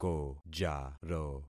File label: "kojaro", concat syllables; Affixing experiment